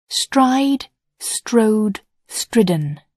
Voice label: "stride", English

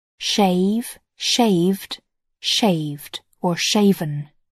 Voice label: "shave", English

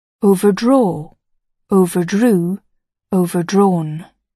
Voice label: "overdraw", English

